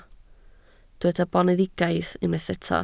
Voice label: "boneddigaidd", Welsh